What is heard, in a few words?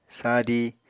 "sadi", Apatani